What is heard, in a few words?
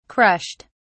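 "crushed", British English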